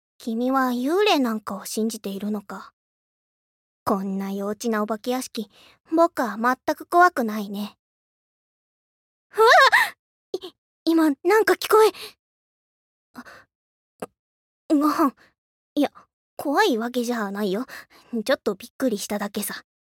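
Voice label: voice sample, cool tomboy girl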